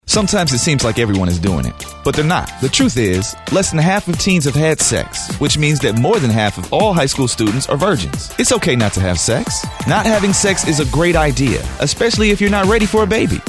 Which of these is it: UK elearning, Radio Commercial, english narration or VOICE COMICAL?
Radio Commercial